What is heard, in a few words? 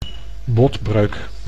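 "botbreuk", Dutch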